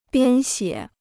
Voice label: "编写", Chinese